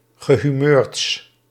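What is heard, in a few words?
"gehumeurds", Dutch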